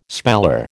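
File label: "speller", American English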